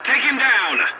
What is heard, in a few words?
voice message attack target, english; War Thunder